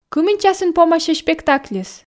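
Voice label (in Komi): кымын часын помасьӧ спектакльыс, Komi, female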